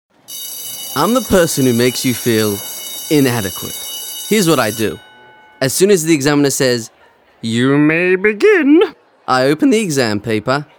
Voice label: Voice Actor; BigMouthVoices